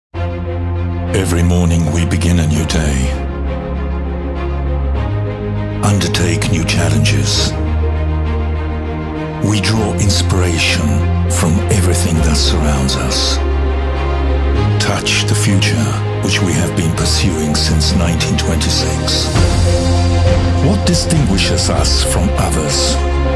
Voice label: Lektor ENG UK; Studio Nonagram